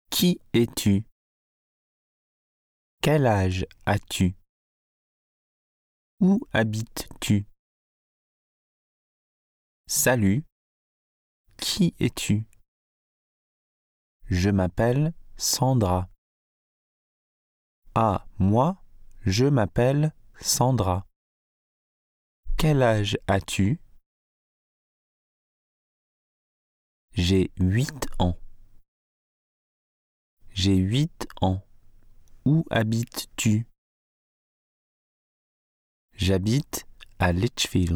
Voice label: KS2 Speaking French